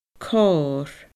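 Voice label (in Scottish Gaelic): "corr", Scottish Gaelic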